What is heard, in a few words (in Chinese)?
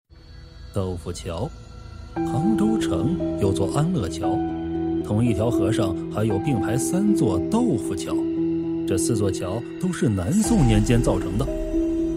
神秘代入